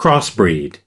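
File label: "crossbreed", US English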